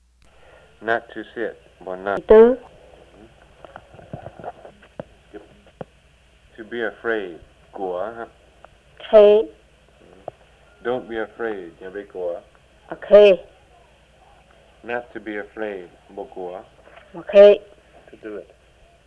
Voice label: Wordlist and Tone

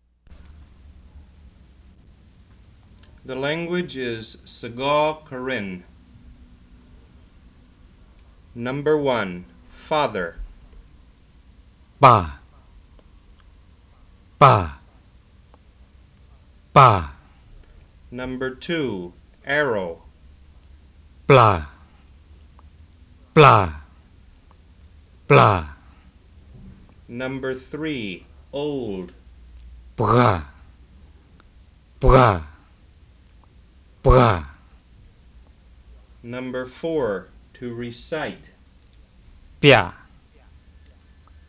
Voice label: Sgau Karen Consonant Contrast

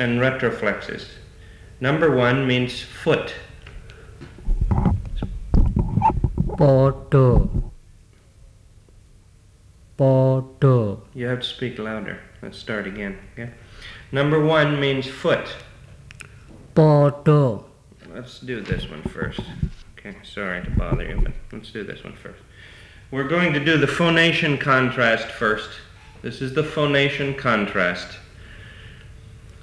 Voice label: Javanese Consonant

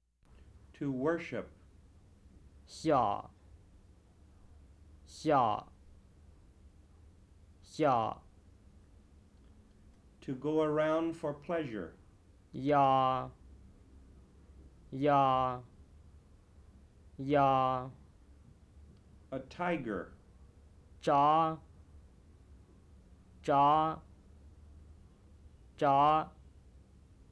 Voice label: White Miao

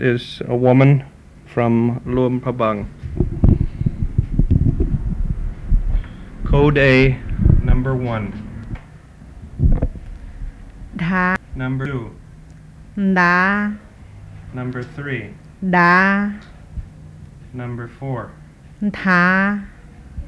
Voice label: White Miao

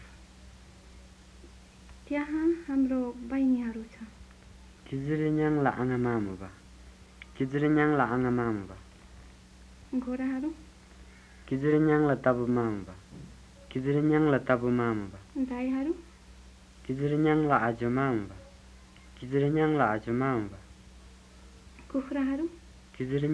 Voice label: Western Tamang

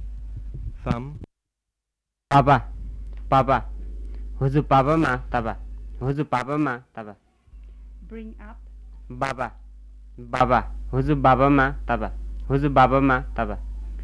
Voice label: Western Tamang

